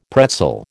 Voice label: "pretzel", American